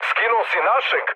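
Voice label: Serbian player killed ally mood med; War Thunder; speech srb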